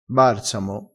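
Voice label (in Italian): "barsamo", vocabolario dialettale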